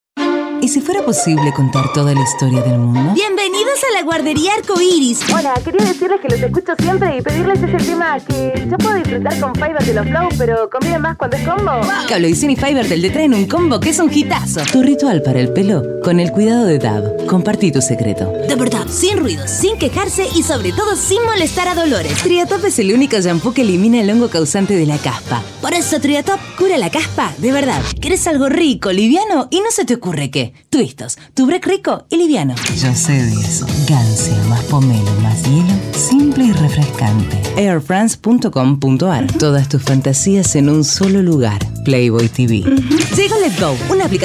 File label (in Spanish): Grave Potente Ductil, voces femeninas